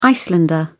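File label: "icelander", British English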